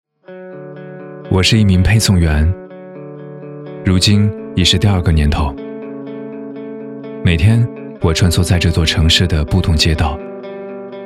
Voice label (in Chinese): 高端男自然励志配送员独白